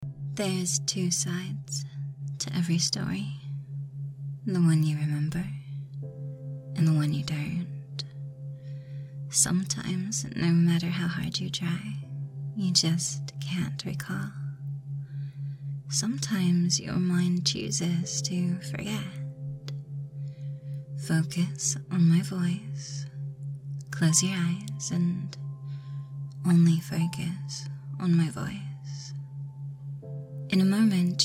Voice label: Hypnosis ASMR Loop Whispers Layers Trigger Installation; F4A; Brainwashing